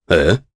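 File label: Vox Deny, Japanese; Nicx; kingsraid; voices heroes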